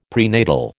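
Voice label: "prenatal", American English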